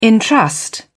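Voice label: "entrust", English, British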